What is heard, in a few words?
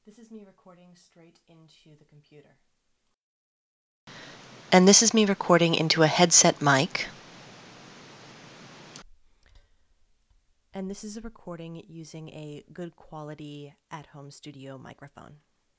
3 Different Mics